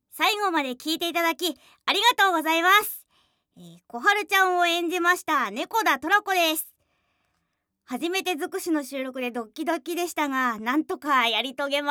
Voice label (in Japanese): フリートーク; 私立聖小公女学院口淫部[日向坂心陽編]